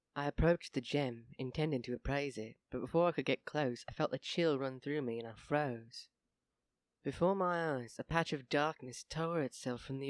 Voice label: Narration